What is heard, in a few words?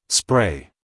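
"spray", American English male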